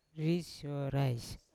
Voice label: "ri syorais" to eat, Yawa

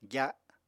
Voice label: "gya", Apatani

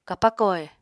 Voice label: "kapako he", Apatani

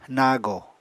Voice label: "nago", Apatani

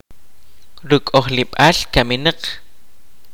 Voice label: "Grave", kaqchikel

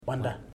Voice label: "woman", Ipili

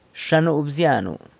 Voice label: "Xa nu bzyanu", San Lucas Quiavini

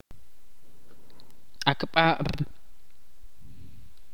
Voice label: "noche", chorti

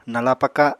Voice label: "nala paka", Apatani

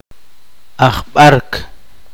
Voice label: poqomchi; entorchador

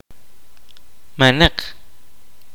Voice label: kaqchikel; nothing

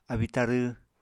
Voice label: "abíi-tarw", apatani

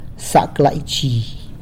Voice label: "Zac laizhi!", tlacochahuaya; Good midday!

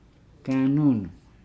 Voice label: "breast", Nukuoro

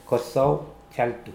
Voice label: "koasoajaltu", Mokilese

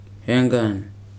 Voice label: "fish", Weri

